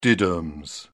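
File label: "diddums", British English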